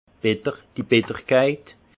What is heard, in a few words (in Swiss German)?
"bitterkeit", alsace; vin; amer